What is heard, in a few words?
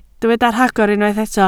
"rhagor", Welsh